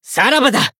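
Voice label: Voice finish, Japanese; curio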